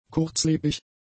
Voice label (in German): "kurzlebig", German; adjectives